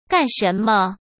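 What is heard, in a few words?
"干什么", Mandarin Chinese; chinese-flashcards; hsk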